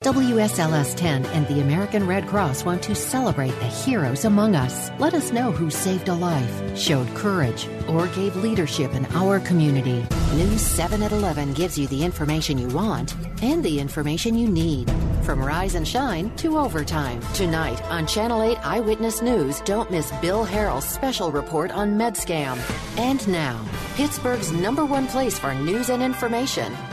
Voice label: Promo